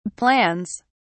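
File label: "plans", British English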